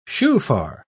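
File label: "shofar", British English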